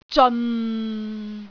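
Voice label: "zeon6", Cantonese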